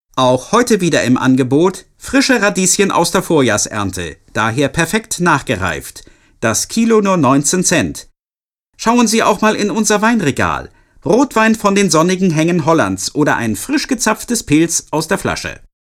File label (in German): Einkaufsradio Durchsage